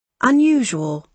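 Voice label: "unusual", British English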